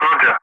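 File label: "roger", English; Ka-50; Wingman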